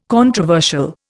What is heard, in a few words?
"controversial", Indian